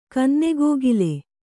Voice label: "ಕನ್ನೆಗ್ಗಿಲು", Kannada